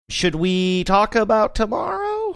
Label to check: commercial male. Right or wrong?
Wrong